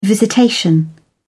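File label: "visitation", English, British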